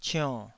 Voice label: "cionn3", Taiwanese

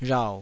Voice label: "rhau3", taiwanese

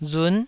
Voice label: "run9", taiwanese

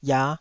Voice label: "iah5", taiwanese

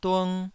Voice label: "dung2", Taiwanese

